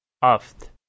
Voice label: "aft", Balochi

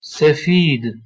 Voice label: "sefid", Persian

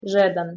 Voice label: "zhedan" Bosnian

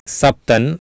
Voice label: "saptan", Sanskrit

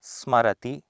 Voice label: "smarati", Sanskrit

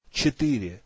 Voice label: Russian

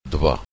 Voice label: "2" Slovak

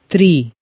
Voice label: "tri" Croatian

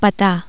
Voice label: Hindi address

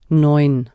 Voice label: "neun", German

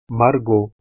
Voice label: "margo" Latin

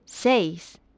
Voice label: "seis", Spanish

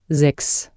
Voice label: "sechs", German

